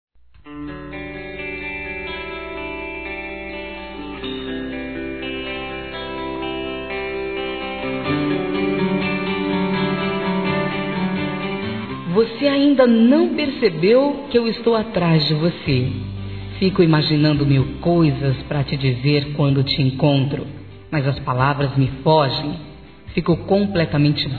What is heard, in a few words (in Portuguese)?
Paquera Feminino; Telemensagens 2017